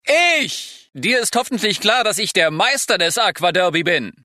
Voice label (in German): Kind Stimme; Aqua-Derby-Kind (erste Stimme); Simon the Sorcerer 3D; Adventure Soft